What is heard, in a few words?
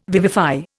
"vivify", Indian